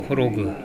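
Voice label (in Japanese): "horogu", 南山形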